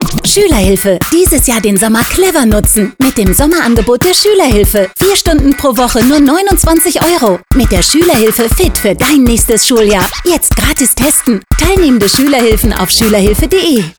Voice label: Feststimme Funkspot OFF WERBLICH; Schuelerhilfe

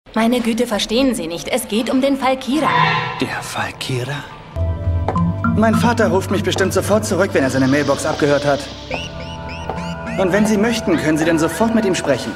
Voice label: JUGENDLICH; Netflix; Death Note; Naomi Misora

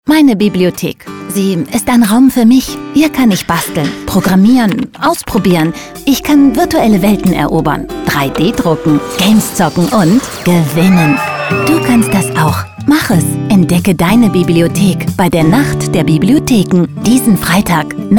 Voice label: Funkspot JUGENDLICH; Nacht der Bibliotheken

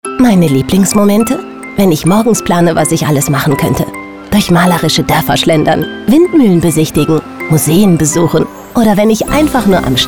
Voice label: Funkspot Tourismus WERBLICH SINNLICH; Holland

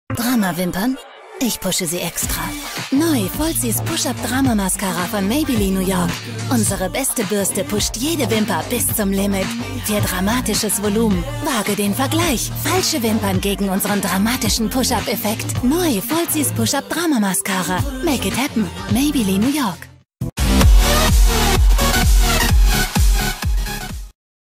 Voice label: Feststimme OFF WERBLICH; Maybelline New York; Gigi Hadid; Push Up Drama

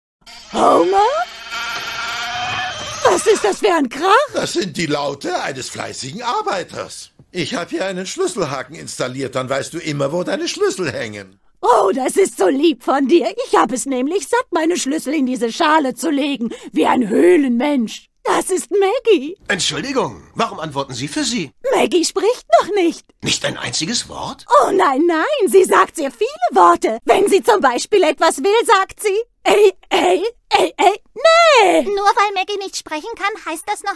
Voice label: Imitation Marge Simpson IMITATION PARODIE CARTOON

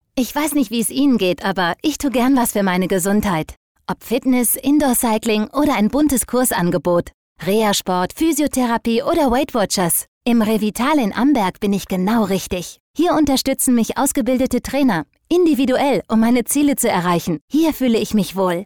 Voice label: Funkspot JUGENDLICH WERBLICH; Revital Amberg

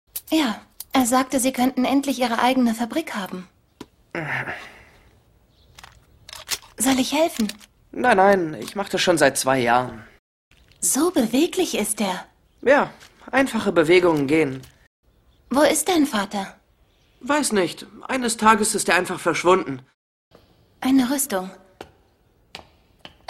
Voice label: Anime JUGENDLICH KINDLICH; Fullmetal Alchimist; Rolle Noah

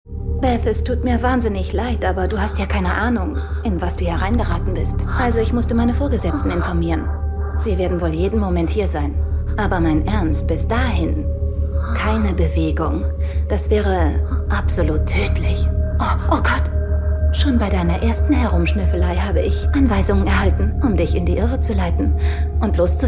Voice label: ERZAEHLER COOL; BBC Worldwide; Orphan Black; Siobhan Murphy; Leslie

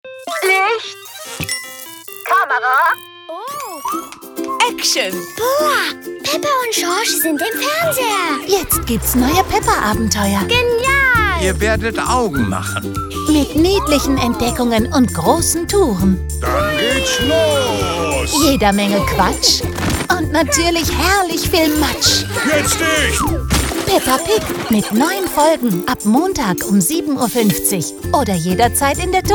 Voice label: Trailer OFF JUGENDLICH KINDLICH; Super RTL; Peppa Pig

